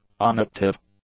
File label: "unactive", English; adjectives